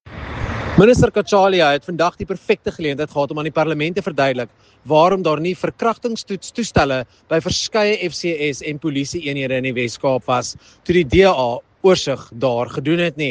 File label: Afrikaans